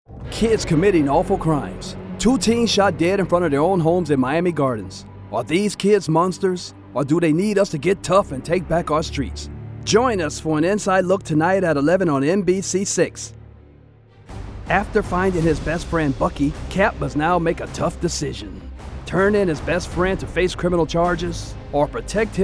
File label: Trailers